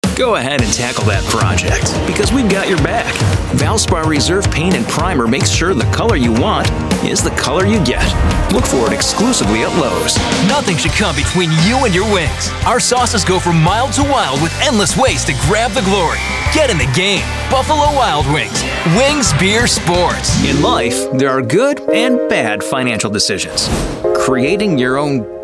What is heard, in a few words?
Commercial VO